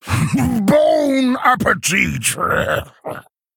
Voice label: Male Ghoul Play

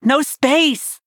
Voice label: Nonbinary Night Elf Error Hand Full

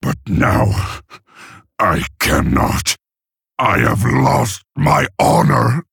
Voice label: Male Orc; Mogrin

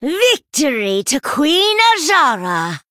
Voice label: Female Night Elf Start

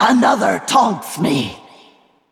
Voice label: Female Val'kyr ERROR TAUNT

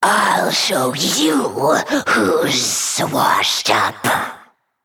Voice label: Female Naga Idle

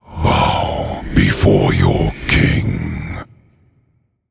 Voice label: Male Undead Bark; Arthas

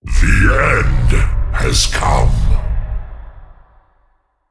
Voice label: Male Demon Bark; Diablo

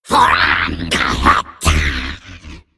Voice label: Male Nerubian Attack

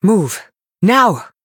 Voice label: Female High Elf Time

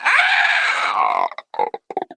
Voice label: Male Arakkoa Death

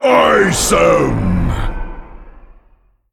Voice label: Male Elemental Attack

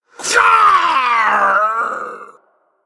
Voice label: Male Undead Death

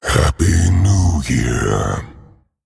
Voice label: Male Dragon HAPPY NEW YEAR

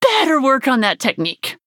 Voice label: Nonbinary Night Elf Oops

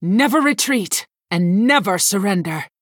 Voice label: Female Human Bark; Balinda Stonehearth

